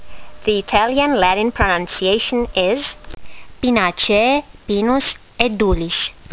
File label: latin; Pinus edulis